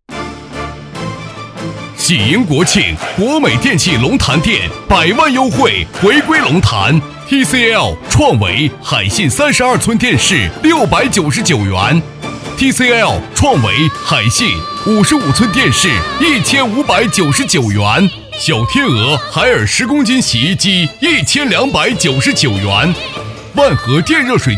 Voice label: 促销; 龙潭

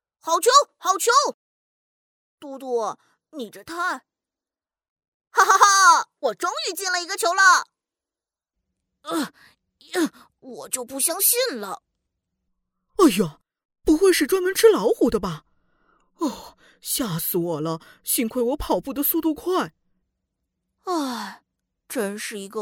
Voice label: 中文 角色类 男童

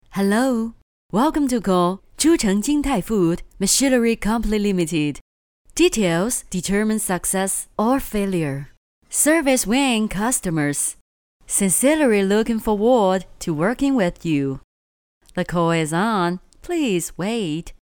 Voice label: 英文 电话 活泼 温馨

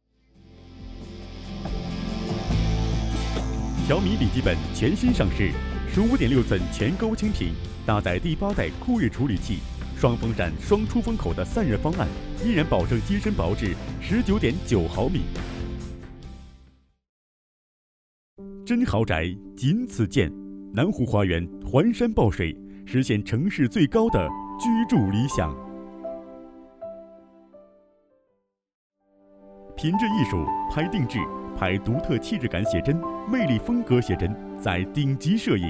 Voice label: 广告 配音

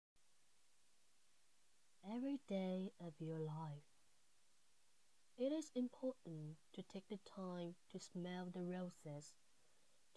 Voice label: 英文 励志文章 朗读

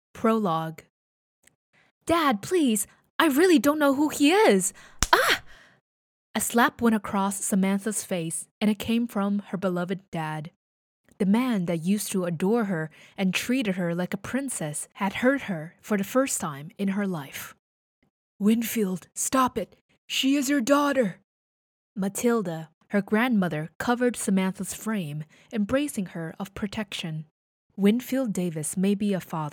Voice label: 英语有声书试音

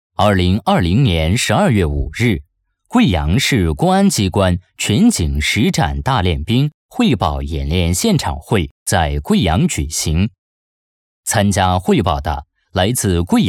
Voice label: 汇报演练沉稳

